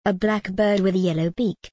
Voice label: a black bird with a yellow beak, en gb